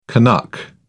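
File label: "canuck", British English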